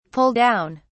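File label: "pull down", British English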